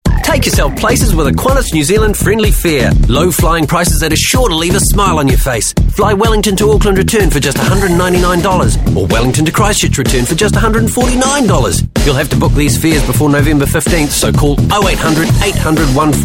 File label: NZ English